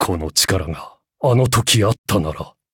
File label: levelup ja; cookierunwiki